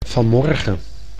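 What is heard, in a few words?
"vanmorgen", Dutch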